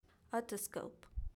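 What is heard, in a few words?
"otoscope", English; DOCTOR SET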